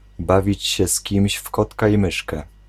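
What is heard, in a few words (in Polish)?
"bawić się z kimś w kotka i myszkę", Polish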